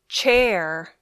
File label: "chair", English